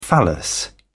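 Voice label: "phallus", English, British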